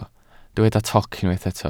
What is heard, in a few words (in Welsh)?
"toc", Welsh